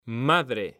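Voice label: "madre", Spanish, male; mekanta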